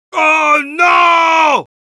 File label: Heavy negative vocalization, es